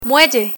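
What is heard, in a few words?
"muelle", Spanish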